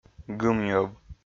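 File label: "гымньӧв", Komi-Zyrian, male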